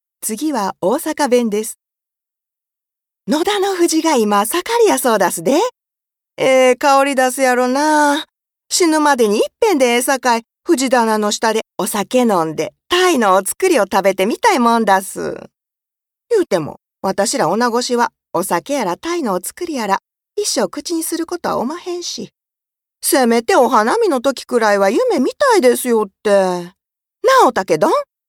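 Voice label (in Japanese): 方言, ボイスサンプル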